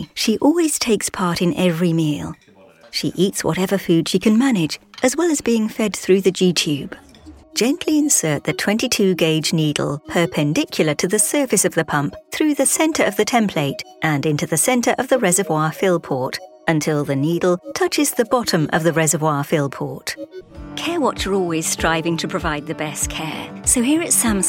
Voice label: english british medical narration; dubladora profissional britânica